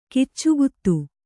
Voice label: "ಕಿಚ್ಚುಗುತ್ತು", Kannada

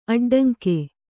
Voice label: "ಅಡ್ಡಂಕೆ", Kannada

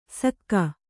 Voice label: "ಸಕ್ಕ", Kannada

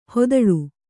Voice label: "ಹೊದಳು", kannada

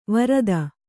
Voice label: "ವರದ", Kannada

